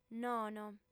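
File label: "nono", female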